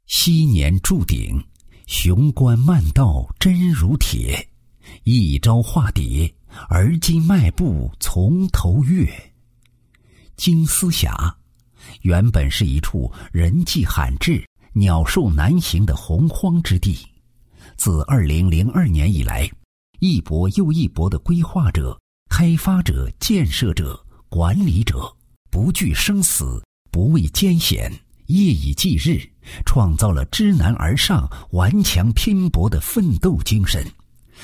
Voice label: 男 旅游 宣传片解说词; 丝峡景区5A创建

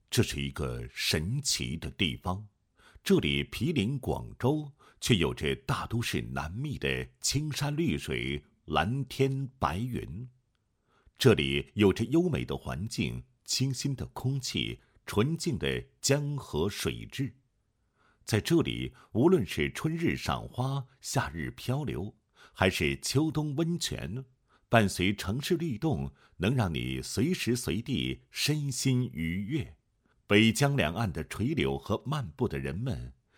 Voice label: 男 公安 招警宣传视频配音; 清远警队

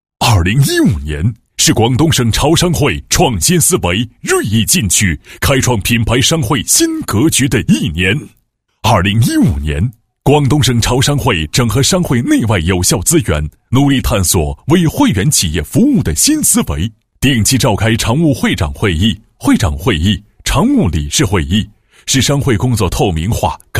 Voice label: 男 企业; 潮商会一周年回顾

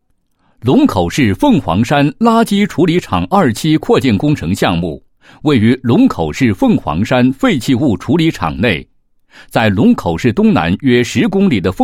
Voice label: 男 工程解说 垃圾处理场二期工程解说词; 凤凰山

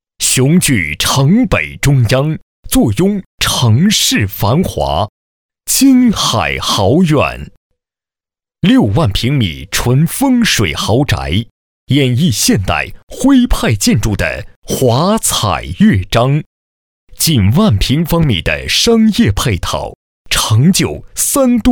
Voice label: 男 干音 地产, 国语男声配音 磁性; 金海豪苑地产小样录音

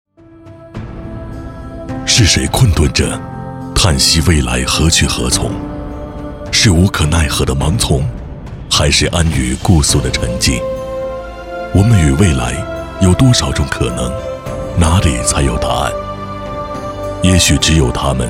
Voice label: 男60号 专题 浑厚品质; 美美咨询; 交广主播

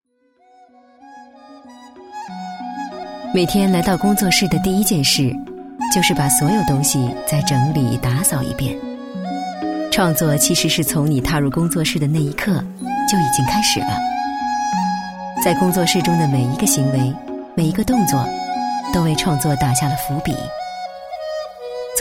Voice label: 纪录片, 自然讲述成熟知性, 艺术家创作

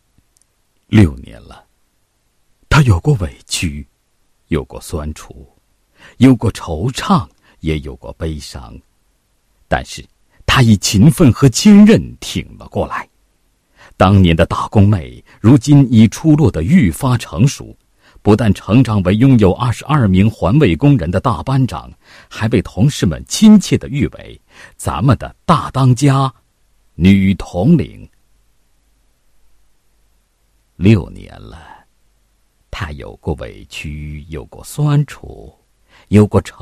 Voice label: 男 人物; 某市环卫工人刘某事迹

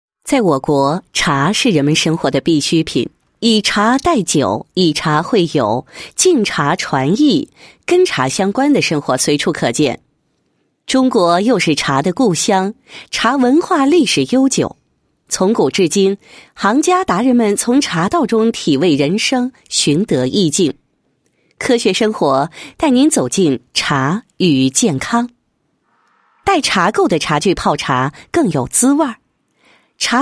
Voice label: 女 茶酒; 茶垢的危害

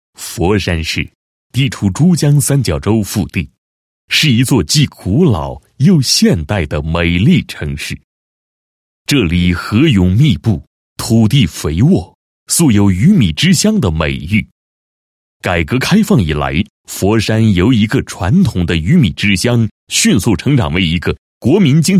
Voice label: 男 专题; 农业科学研究所

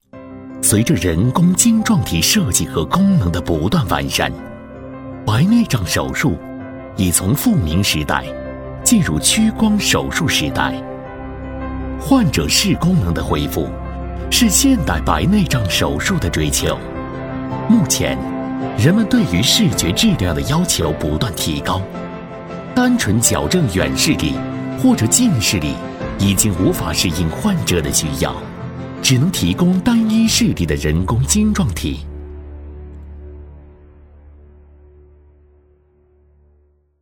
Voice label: 男 健康专题; 白内障手术

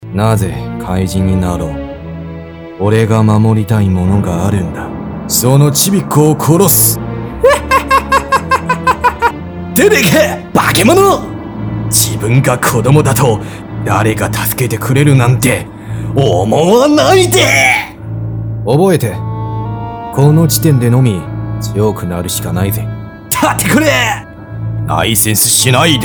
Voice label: 男, 日语, 动漫人物; 精分

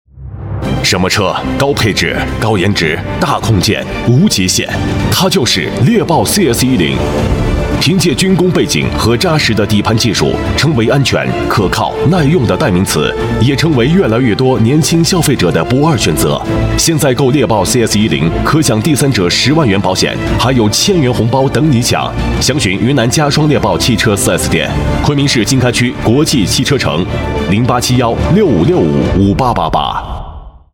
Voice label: 男 年轻磁性 广告; 猎豹cs10

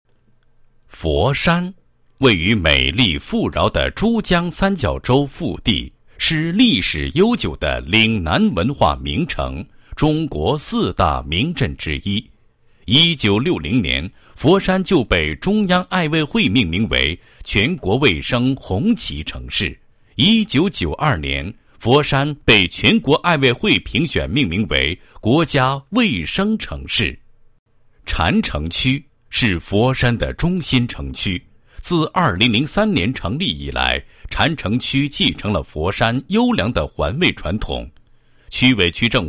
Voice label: 男 工作总结 宣传片; 某区环卫三年城市管理总结宣传片

